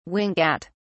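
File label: "wink at", British English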